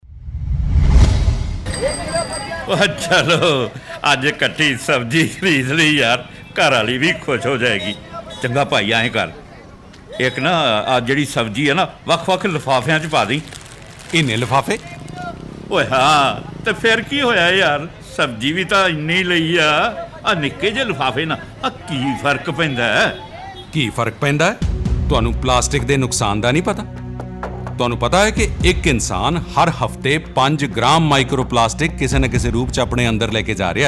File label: radio spot; Plastic